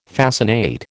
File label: "fascinate", American